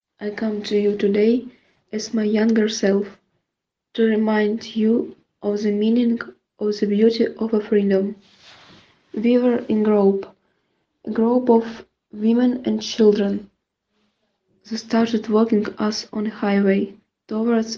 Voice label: locutora ucraniana ukrainian child voiceover english recording